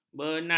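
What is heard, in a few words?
"benang", Malay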